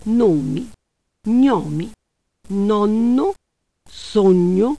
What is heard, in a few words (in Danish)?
italiensk nomi gnomi; [ˈno:mi ˈɲɔ:mi ˈnɔn:o ˈsoɲ:o]